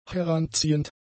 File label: "heranziehend", German; adjectives